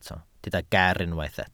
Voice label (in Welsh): "ger" Welsh